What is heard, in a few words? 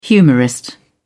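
"humorist", English, British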